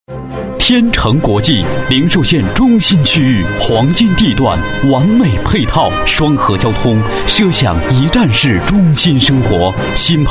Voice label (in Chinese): 广告 地产 大气; 天城国际